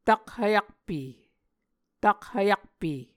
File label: "takhvyakpe", Muscogee